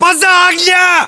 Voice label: base fire, english voiceovers